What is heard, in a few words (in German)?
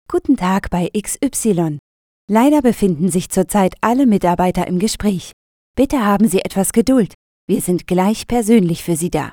Warteschleife